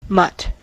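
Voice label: "mutt", American English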